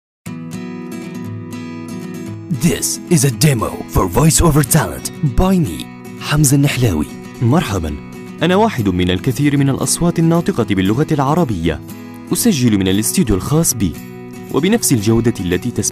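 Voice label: Arabic, male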